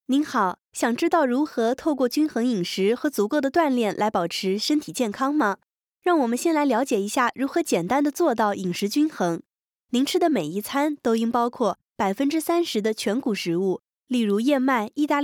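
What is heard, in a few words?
chinese mandarin female narration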